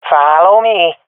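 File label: request follow me, swat female; Counter Strike Model Pack; CS:GO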